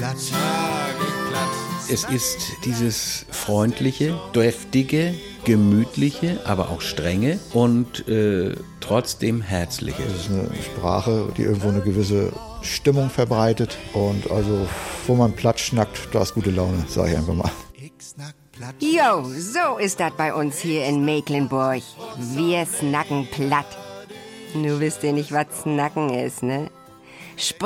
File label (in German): mecklenburger plattdeutsch; dialektatlas; dialects